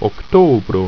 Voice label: "oktobro", ido